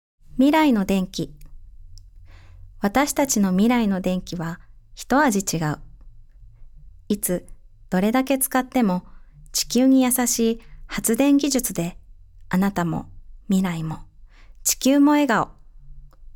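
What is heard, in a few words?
narration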